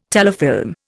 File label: "telefilm", Indian